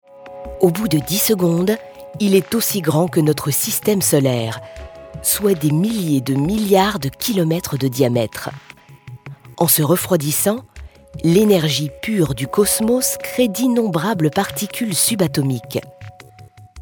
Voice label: fr documentary

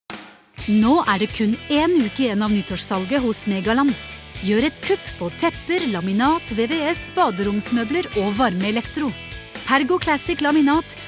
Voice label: no hard sell

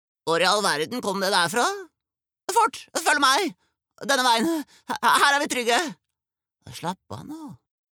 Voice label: dub, character

